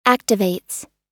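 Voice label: "activates", US English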